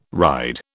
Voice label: "ride", British